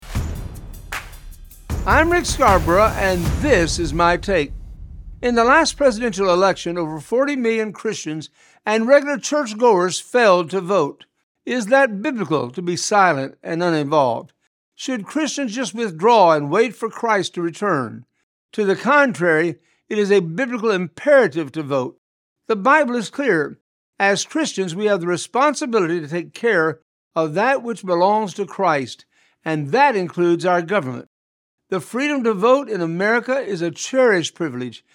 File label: radio spot, quick one minute "takes"; Biblical Vote